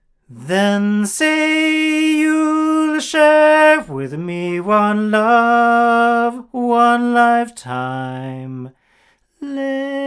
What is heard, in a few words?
Neumann TLM170 omni male